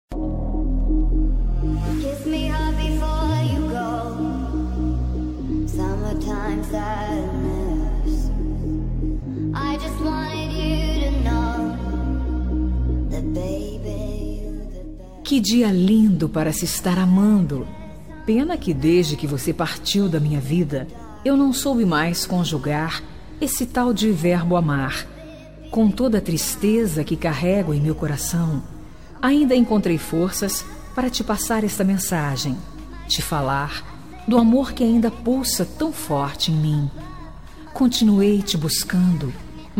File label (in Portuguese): ex fem; Dia dos Namorados; para ex namorado; voz feminina